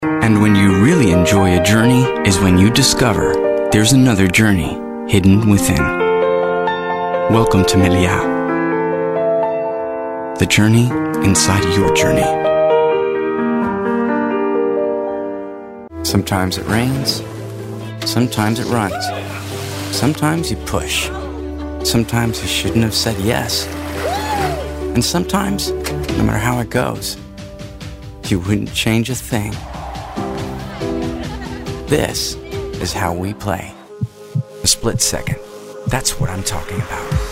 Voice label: american accent, english male voices american accent